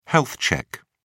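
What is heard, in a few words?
"health check", British English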